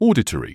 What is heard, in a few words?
"auditory", medical english uk; OR-di-tree; ˈɔː.dɪ.triː